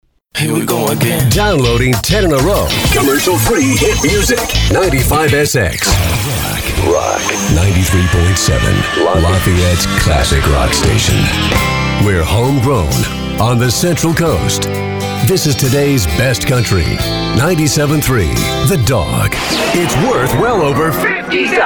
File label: Male, promos